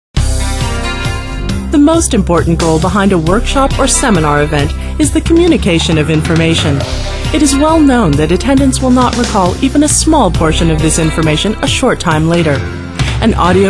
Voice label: corporate